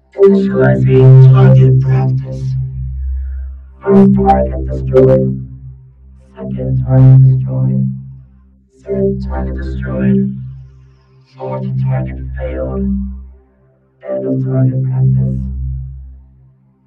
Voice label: Robotic Voice